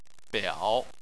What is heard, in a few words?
"biao3", chinese